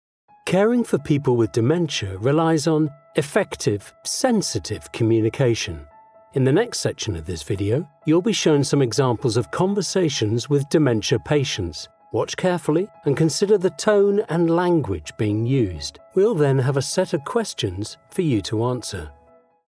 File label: nhs explainer